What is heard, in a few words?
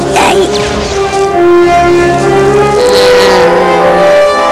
sad, stormtrooper